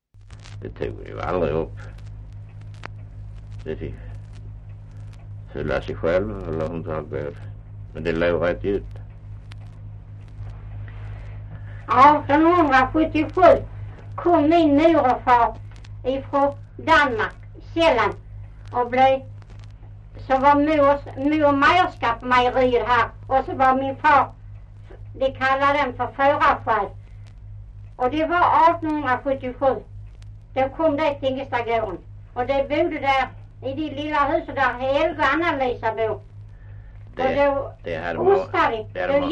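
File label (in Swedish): Östra Ingelstad Skåne, Svenska sagor dialekter; Folkminnen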